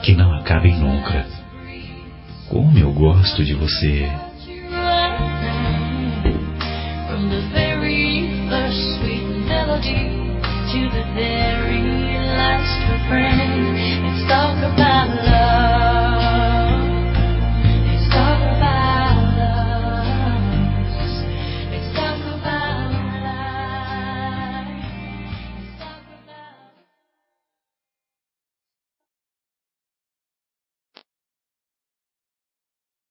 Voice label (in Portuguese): Tele Mensagem Sonho Com Voce (Voz Masculina); COMEMORATIVAS